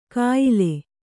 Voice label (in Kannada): "ಕಾಯಿಲೆ", Kannada